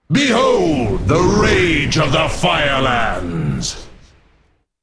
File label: Male Night Elf Play